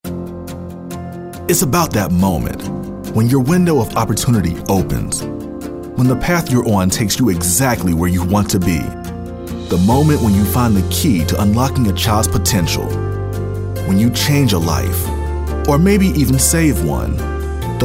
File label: inspirational